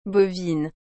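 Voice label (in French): "bovine", French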